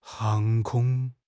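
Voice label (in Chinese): "航空", Chinese; HSK; HSK6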